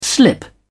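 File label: "slip away", British English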